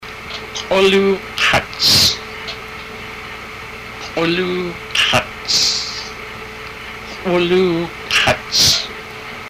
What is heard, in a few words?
knee, haida